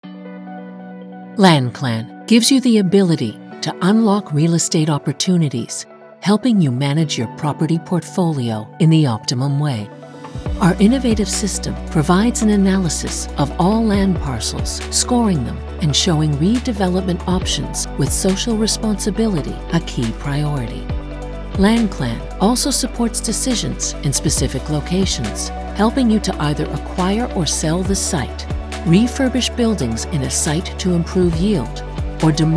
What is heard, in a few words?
E Learning; LandClan